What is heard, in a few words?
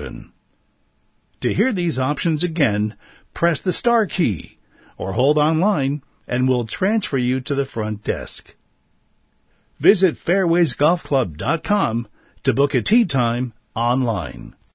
Golf Club phone greeting; Fairways Golf Club